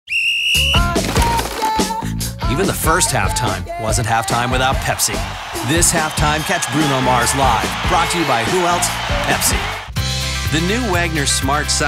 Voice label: men commercial

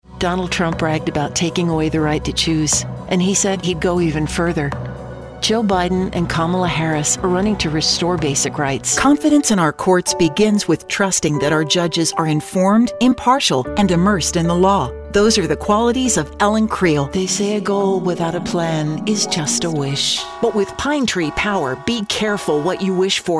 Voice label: women political

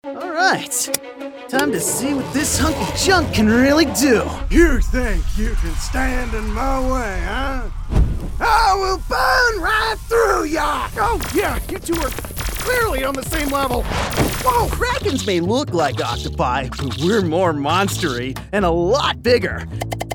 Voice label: men animation; Young Adult vocal range of 14-34. Bright, energetic, captivating, conversational, & real.

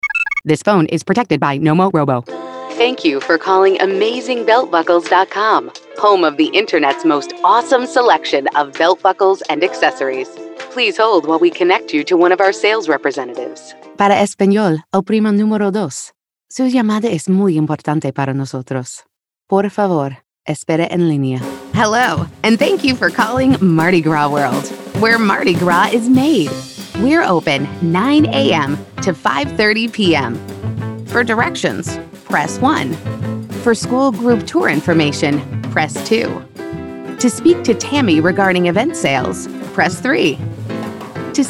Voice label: women telephone; Hip and friendly millennial with a textured and relatable sound

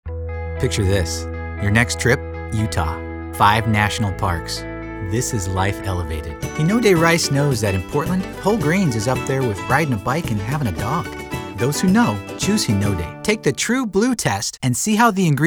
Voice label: men commercial

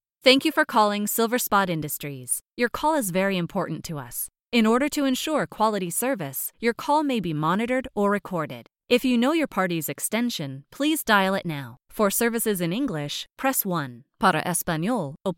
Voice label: women telephone; Engaging storyteller with a huge array of characters and a talent for accents/dialects. Versatile, natural, savvy, conversational, millenn

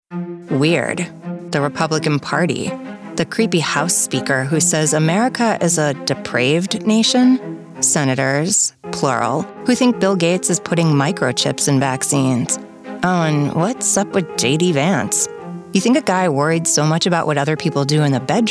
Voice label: women political

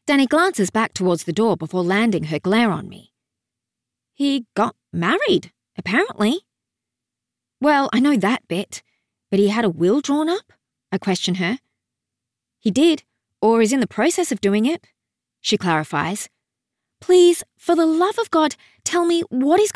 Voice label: australian narration; Naturalistic, deeper voice. Slightly gravelly.

